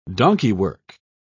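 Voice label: "donkeywork", British English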